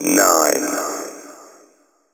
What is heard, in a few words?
techno male 140; Vocals